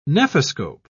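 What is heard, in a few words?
"nephoscope", British English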